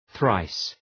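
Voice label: "thrice", English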